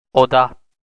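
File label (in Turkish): "oda", Turkish, male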